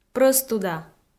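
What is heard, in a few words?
"прӧстуда", Komi, female; kpv audio dict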